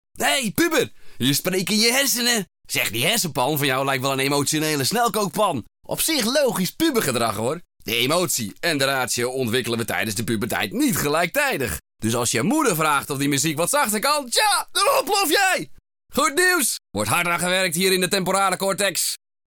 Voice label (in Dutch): Hersenen Cartoon Expressief